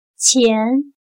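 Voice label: "前" Chinese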